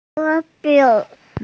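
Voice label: "ge1bo5", Mandarin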